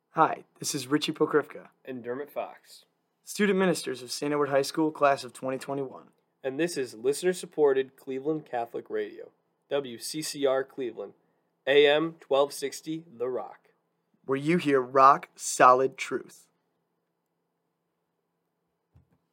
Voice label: Catholic Radio station identification